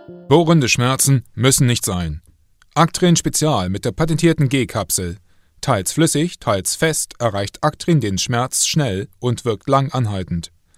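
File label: German M Corporate, male; voice over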